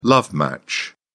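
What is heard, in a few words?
"love match", British English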